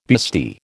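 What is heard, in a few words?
"bustee", American English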